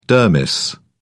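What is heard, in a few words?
"dermis", British English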